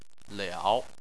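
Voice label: "liao", Chinese, third tone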